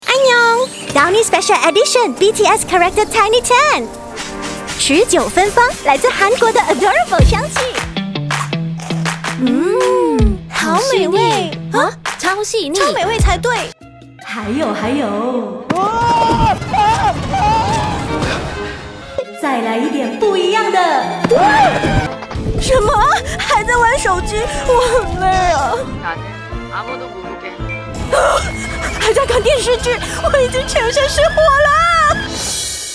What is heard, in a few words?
Mandarin Announcer, Style: Confident, Bold & Empowering; Eucerin